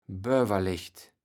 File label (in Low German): "Böwerlecht", kaevels platt